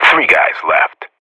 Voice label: radio bot kill count, vo professional; Counter Strike Model Pack; CS:GO

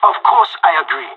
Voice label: agree, pirate; Counter Strike Model Pack; CS:GO

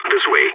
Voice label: radio follow me, balkan; vo

